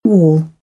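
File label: "wall", British English; AmericanBritish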